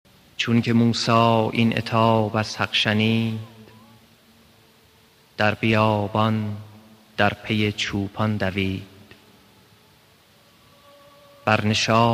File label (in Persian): Avaze Masnavi (Deklameh)